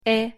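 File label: "э", Russian pronunciation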